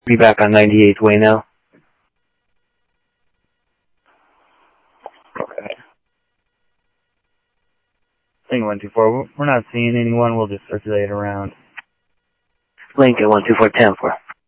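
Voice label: Sheriff East County, scanner audio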